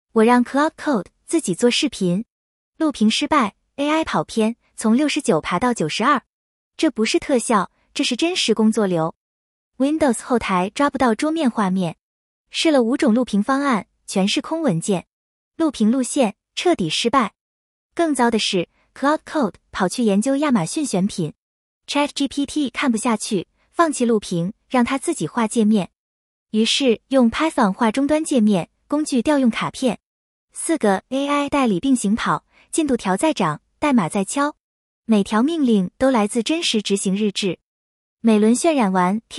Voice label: voiceover